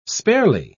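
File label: "sparely", British English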